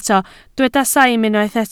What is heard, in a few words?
"saim", Welsh